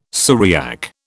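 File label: "Syriac", British English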